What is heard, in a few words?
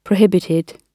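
"prohibited", British English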